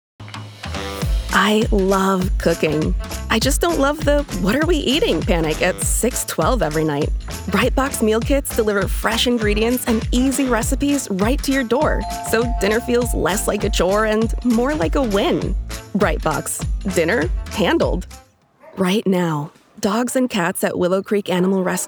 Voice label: Commercial